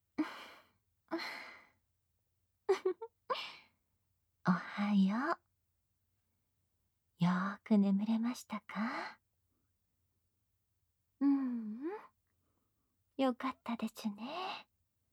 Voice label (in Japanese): 目覚ましボイス